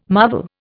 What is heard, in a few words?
"marvel", Indian